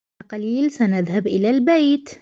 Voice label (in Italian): Arabo